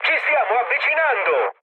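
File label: Italian near waypoint mood med, speech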